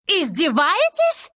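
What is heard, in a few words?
lose, Russian; dota2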